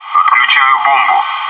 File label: "defusing bomb", bot; maxpack